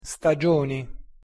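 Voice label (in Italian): "stagioni", Italian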